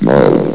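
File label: "mode", male synthesized announcer voice; blizzard; hlvox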